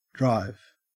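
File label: "drive", Australian English; pronunciation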